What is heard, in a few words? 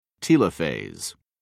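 "telophase", British English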